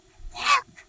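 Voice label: voice acting, es, female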